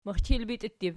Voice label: "mixchilbitiuttib", Archi